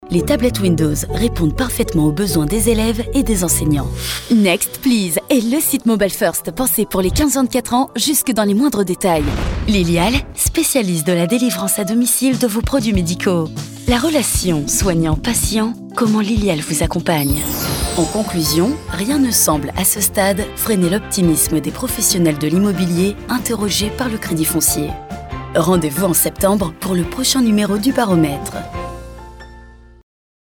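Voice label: French, female, Corporate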